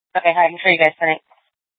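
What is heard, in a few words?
Police Department North Dispatch, scanner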